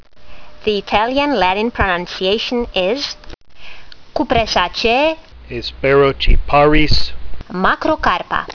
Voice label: latin; Hesperocyparis macrocarpa